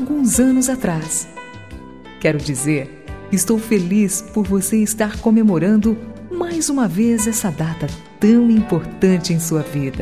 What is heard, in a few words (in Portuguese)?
Aniv. de Afilhados F